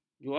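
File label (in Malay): "juandang", Malay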